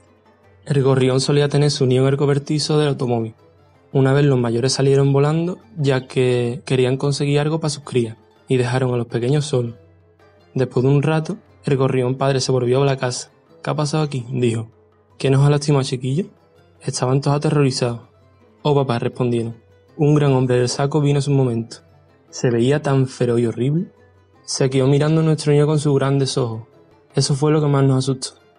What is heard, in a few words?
Andalucian Spanish